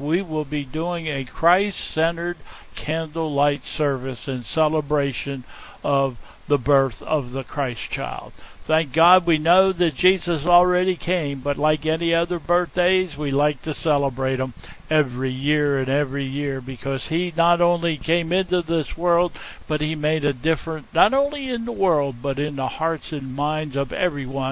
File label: Welcome and Announcements